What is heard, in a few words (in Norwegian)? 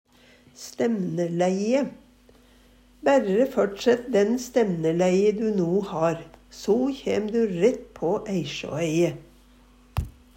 stemnelei, Numedalsmål; Eksempel på bruk Bærre førtsett den stemneleie du no har, so kjem du rett på Eisjå-eie.